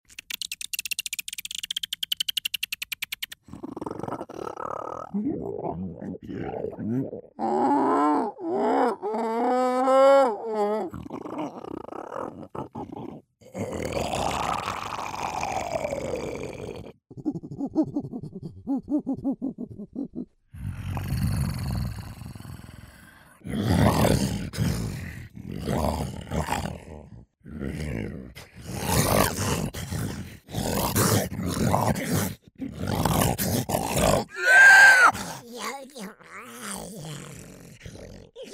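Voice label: animation men creatures, voices men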